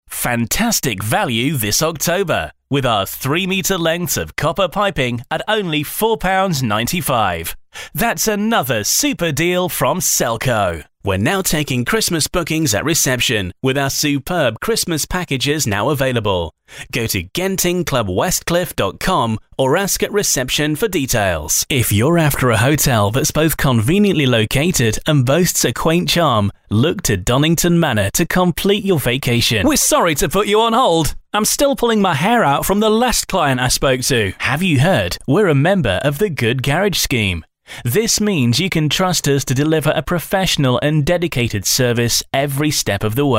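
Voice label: English UK, Kommentar Variabel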